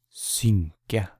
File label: "Synke", Norwegian